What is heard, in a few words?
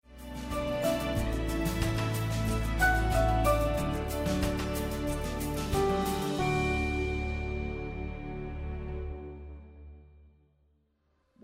Spanish Sermon